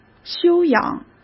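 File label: "修养", Mandarin; chinese flashcards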